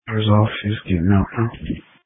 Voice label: Police Tactical Encrypted